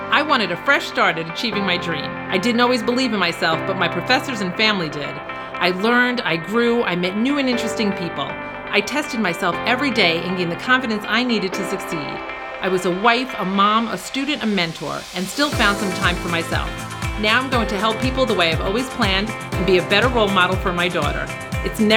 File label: Commercial; SUNY Orange